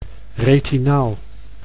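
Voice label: "retinaal", Dutch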